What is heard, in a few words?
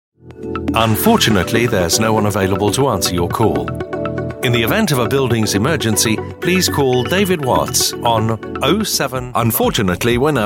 telephone on hold